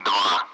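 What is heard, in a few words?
Speech Sound Russian Common Allied Flight; Digits; 2-end; DCS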